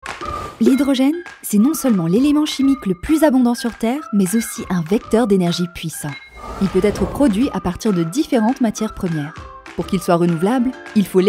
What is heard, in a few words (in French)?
voix off; engie